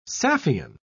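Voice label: "saffian", British English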